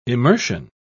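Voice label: "immersion", British English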